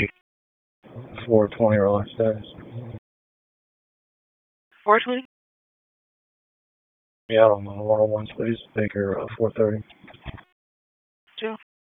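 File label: Raleigh Police Southeast District Dispatch, p25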